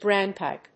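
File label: "drainpipe", English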